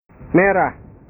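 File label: "merah", Indonesian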